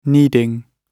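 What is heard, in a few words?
"needing", British English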